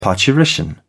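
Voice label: "parturition", British English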